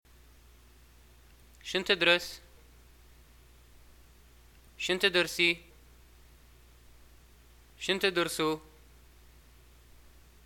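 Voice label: what do u study, Libyan arabic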